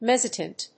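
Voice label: "mezzotint", English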